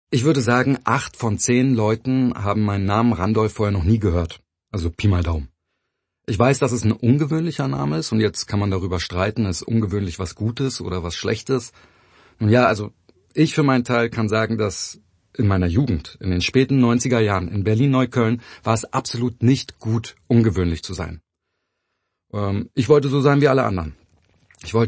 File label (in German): Natuerliche Stimme